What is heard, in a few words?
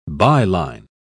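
"by-line", English, British English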